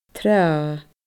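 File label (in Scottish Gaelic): "trath", Scottish Gaelic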